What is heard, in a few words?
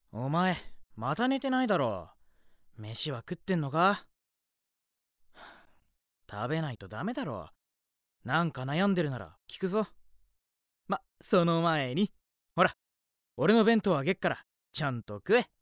Caring Highschooler; 面倒見のいい高校生; bloomz-inc